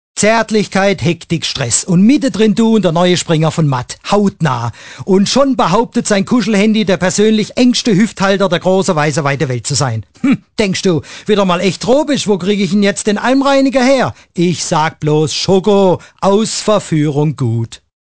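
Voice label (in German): Badisch, Dialekt, Männer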